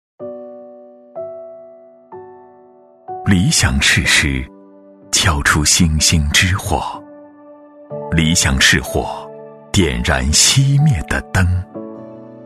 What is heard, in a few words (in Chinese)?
男; 男40-有理想